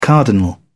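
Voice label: "cardinal", British English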